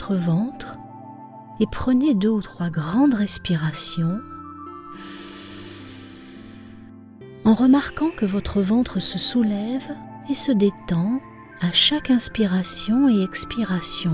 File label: Relaxation sans EQ avec musique